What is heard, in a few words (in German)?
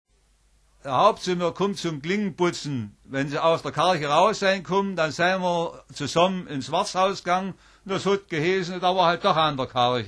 Obersaechsisch; Mitteldeutsch